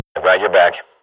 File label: radio bot reponse cover request, Counter-Strike swat; Global Offensive; player vo